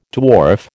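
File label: "dwarf", American English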